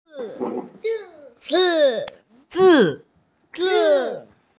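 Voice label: "zi4", Mandarin Chinese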